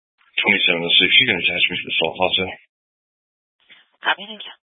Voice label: Sheriff Dispatch, scanner; Mesa County

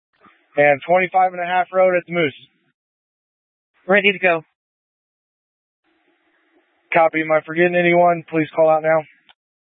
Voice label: Tactical Incidents, scanner

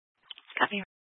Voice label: Mesa County Sheriff Dispatch

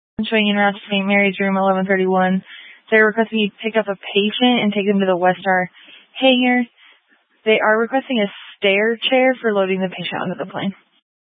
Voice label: Fire Department Dispatch; Grand Junction

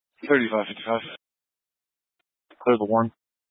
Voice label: Police Department Dispatch, scanner

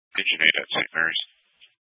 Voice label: Grand Junction Fire Department Dispatch

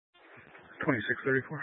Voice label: Sheriff Dispatch, scanner; Mesa County

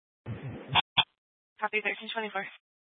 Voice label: Sheriff Dispatch, scanner; Mesa County

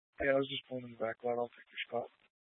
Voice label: Police Department Dispatch, scanner

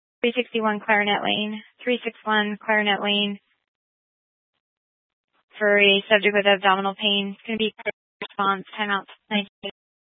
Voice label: Grand Junction Fire Department Dispatch

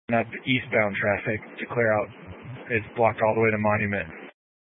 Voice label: Incident Tac 3, scanner

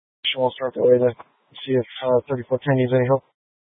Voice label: Police Dispatch, scanner

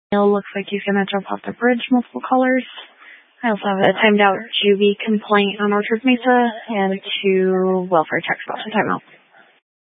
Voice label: Police Dispatch, scanner; Police 1 Dispatch

